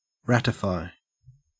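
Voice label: "ratify", Australian English; pronunciations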